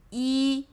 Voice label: "Yi", one